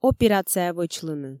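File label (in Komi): операция вӧчлыны, Komi, female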